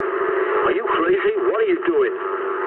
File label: eng player killed ally mood low, speech eng; War Thunder